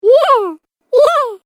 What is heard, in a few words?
Cartoon Game Voice Yeahs